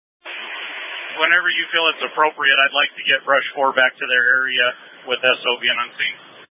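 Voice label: Incident Tac 2, Scanner audio recording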